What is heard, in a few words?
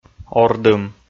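"ордым", Komi, male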